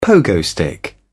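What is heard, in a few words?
"pogo stick", British English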